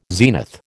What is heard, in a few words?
"zenith", American